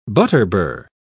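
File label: "butterbur", English, British English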